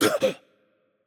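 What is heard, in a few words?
Japanese hurt light voice; bloom minigame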